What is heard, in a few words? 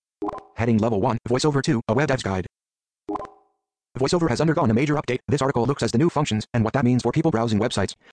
voiceover heading